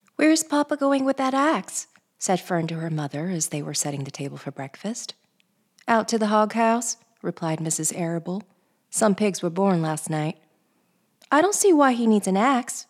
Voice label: Children's Fiction